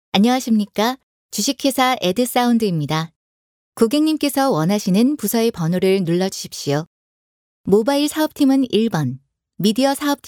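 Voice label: Korean female; ARS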